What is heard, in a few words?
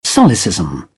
"solecism", British; ɑː - ɒ; English